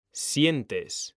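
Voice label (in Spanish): "sientes", male; mekanta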